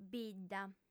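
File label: "bidda", female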